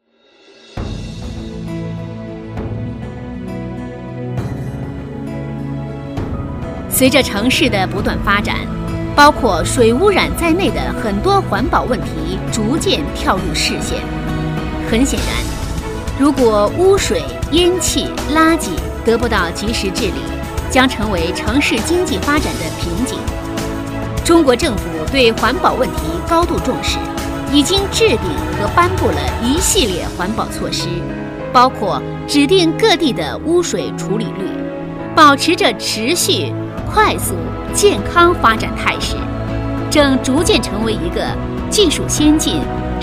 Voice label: 专题女国, 庄重紧凑; 专题片配音

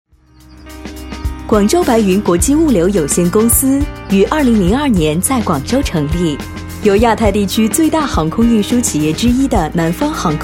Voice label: 专题女国, 科技质感; 专题片配音

